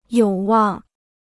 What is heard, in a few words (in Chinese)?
"有望", Chinese